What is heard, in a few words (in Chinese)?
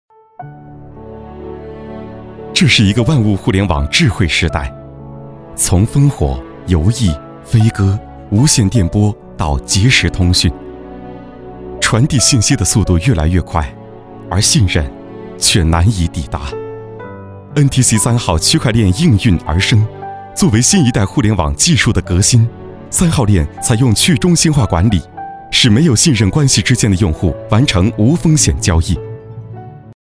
男 专题 亲切大气年轻